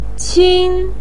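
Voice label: "qin", Mandarin pinyin, first tone; 亲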